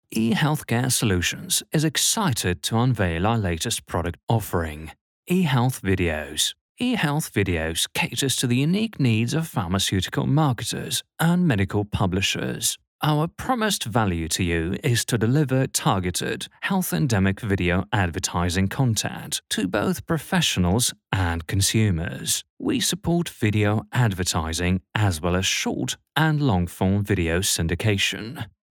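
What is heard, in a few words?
English UK Male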